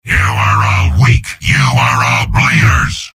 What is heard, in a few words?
soldier taunts; tf2; garrysmod; mvm